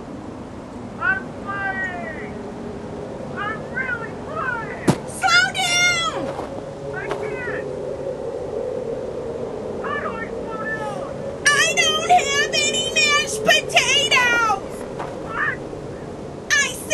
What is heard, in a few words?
Voice Memo; Writeria